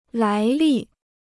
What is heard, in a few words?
"来历", Chinese